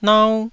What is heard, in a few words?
"nau1", taiwanese